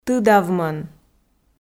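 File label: "тыдавмӧн", Komi, female; audio dict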